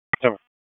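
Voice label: Raleigh Police Southeast District Dispatch, p25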